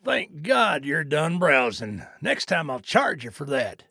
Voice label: trader male neutral sale accepted

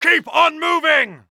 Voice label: uk Neutral

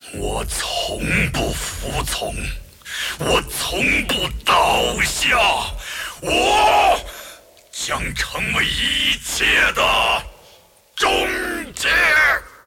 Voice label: move, zh

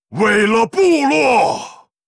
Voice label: war cry, zh; Thrall

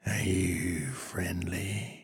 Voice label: trader male neutral greeting

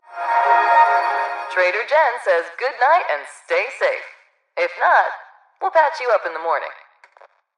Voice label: announce closed; 商人杰恩（七日杀）

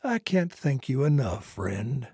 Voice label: trader male like quest accepted

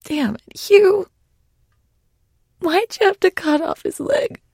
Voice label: sad joke; 7D2D; 商人杰恩; 七日杀

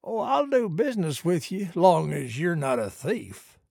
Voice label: trader male neutral greeting

